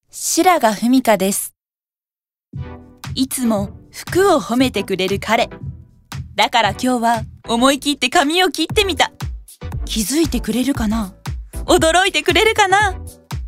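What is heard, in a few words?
commercial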